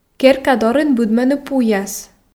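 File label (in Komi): керка дорын быдмӧны пуяс, Komi, female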